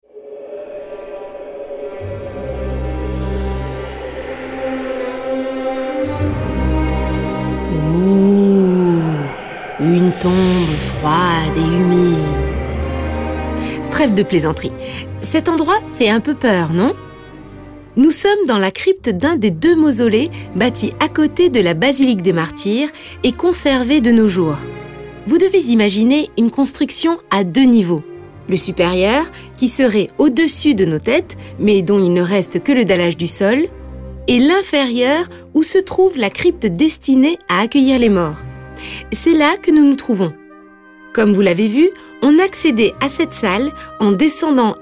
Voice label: audioguia fr; necropolis; mausoleu amb cripta